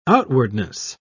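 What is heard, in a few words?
"outwardness", English, British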